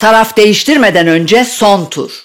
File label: teamswap before, announcer; Valorant